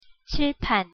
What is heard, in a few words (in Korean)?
"칠판", Korean